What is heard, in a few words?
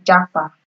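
"japa", Nigerian Pidgin, female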